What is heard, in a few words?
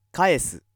male